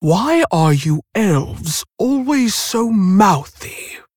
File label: Female Tauren Emote Response